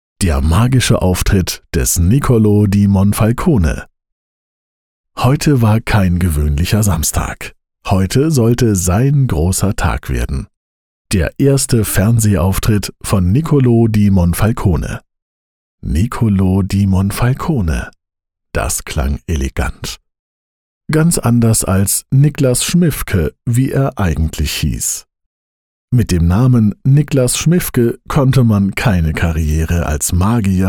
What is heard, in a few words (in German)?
Hörbuch; Der Magier